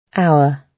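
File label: "hour", British English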